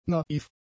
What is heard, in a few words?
"naiv", German; adjectives